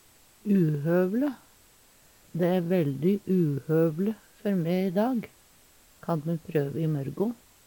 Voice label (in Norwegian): uhovle, Numedalsmål; Adjektiv